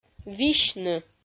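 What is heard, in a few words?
"висьны", Komi, female; audio dict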